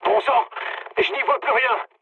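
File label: searchlite, mood medium, French; War Thunder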